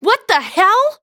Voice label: Waitress Anger; zps